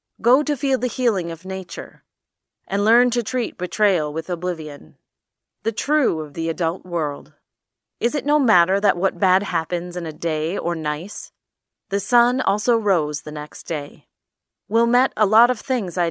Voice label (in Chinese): 美式女声 干音